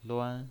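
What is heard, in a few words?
"luan", pinyin, Mandarin Chinese, first tone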